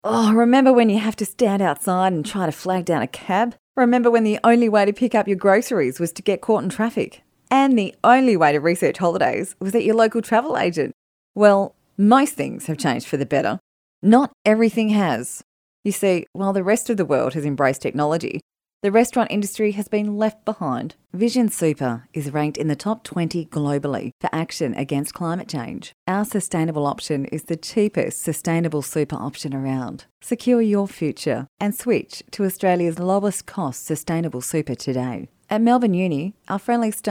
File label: Natural Demo